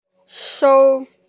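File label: "sou1", Mandarin Chinese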